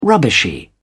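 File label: "rubbishy", British English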